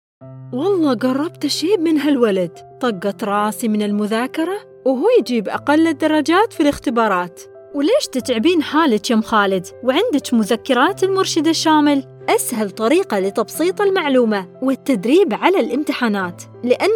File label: voiceover